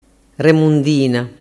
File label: "remundina", dialettu